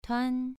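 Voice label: "tuan" Mandarin Chinese, second tone, pronunciation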